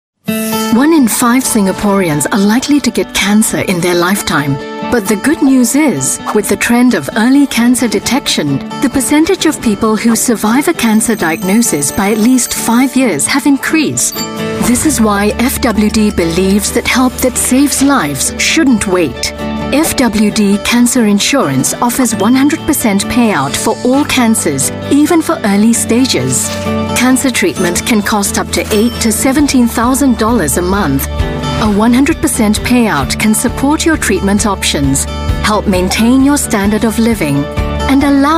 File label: Asian English Promo; FWD Cancer Insurance